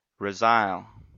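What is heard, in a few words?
"resile", US English; pronunciations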